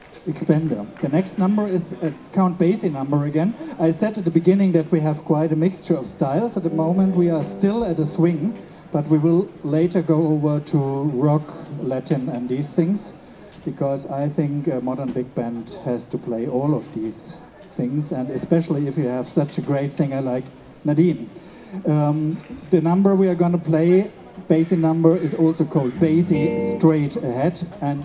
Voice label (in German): Ansage